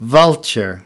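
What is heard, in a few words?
"vulture", US English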